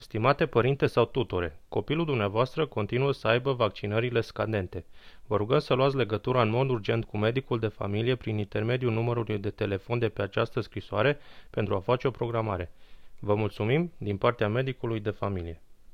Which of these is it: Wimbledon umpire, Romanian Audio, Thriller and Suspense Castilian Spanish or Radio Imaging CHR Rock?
Romanian Audio